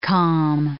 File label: "calm", English